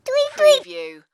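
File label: Toddler Tweet Tweet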